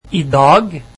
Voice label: "today", Norwegian